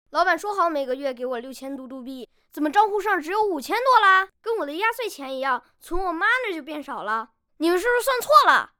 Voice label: 男 对口 男真童

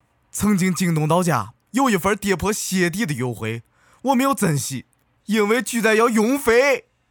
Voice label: 陕西方言 男 大音 陕西话; 方言配音

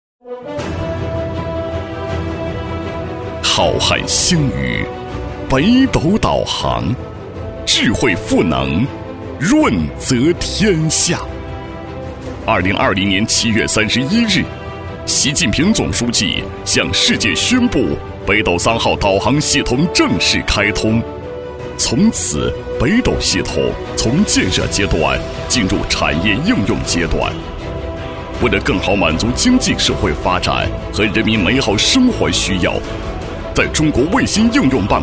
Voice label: 男 形象片宣传; 走心企业形象片样音; 新北斗智汇形象片宣传

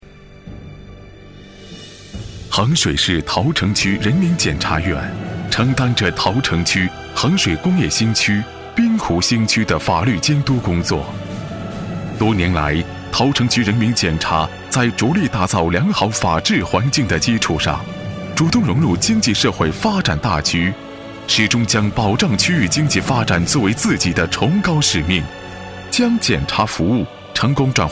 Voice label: 男 国 大音 纪实; 党政; 桃城区人民检察院驻衡水工业新区检察室

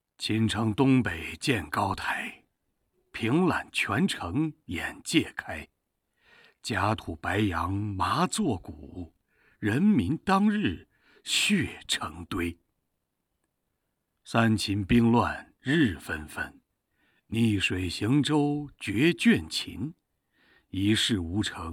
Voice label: 男 诗歌; 诗词类男声样音; 胡步川诗歌

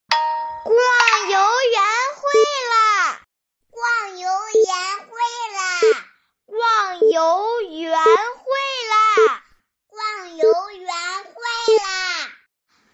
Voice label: 男 女真童; 游园会啦; 真童配音

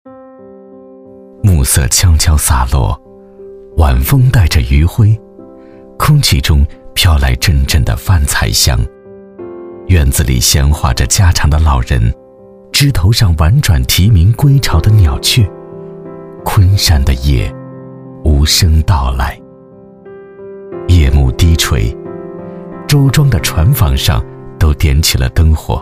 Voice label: 男 夜游篇 缓缓讲述; 乐游昆山夜游篇; 文旅配音

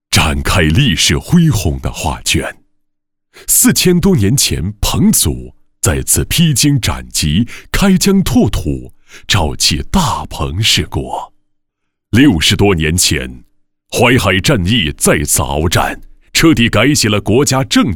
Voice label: 男国 招商新产品 形象宣传片; 铜山

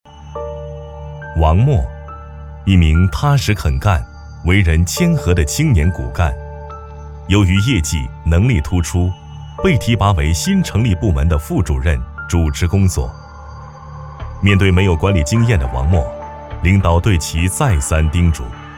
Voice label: 男 反腐短剧旁白; 反腐样音

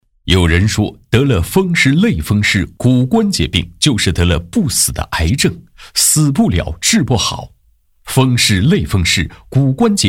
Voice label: 男 国 大音; 侯丽萍风湿骨病中医医院

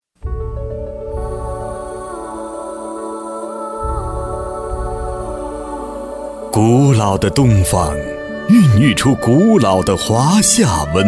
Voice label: 男国 城市宣传片; 浏阳河

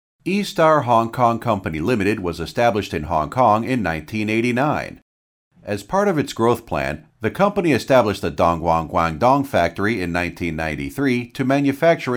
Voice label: 男 英 大音 history; vo history demo

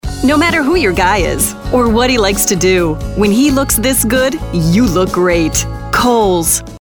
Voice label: 女英 专题 热情奔放

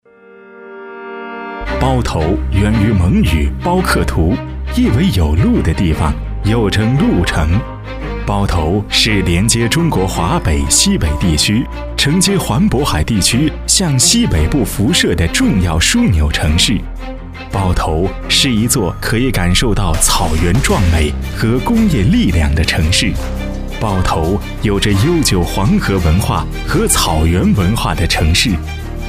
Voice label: 男 大音 城市招商; 包头

